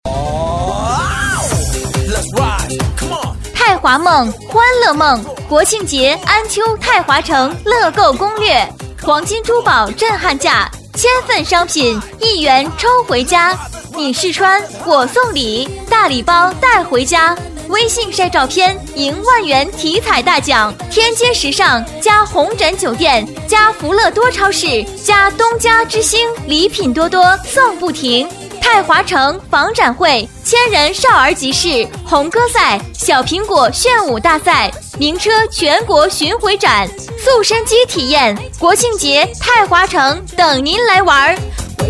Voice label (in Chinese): 促销; 泰华梦欢乐梦